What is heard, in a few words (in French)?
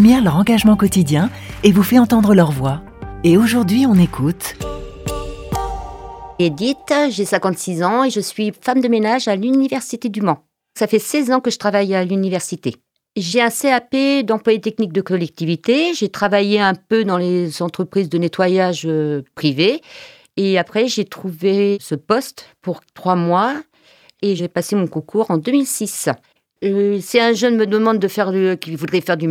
podcast; MGEN Paroles publiques